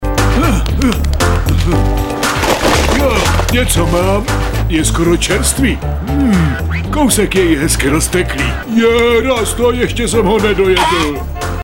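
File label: male, Czech, drama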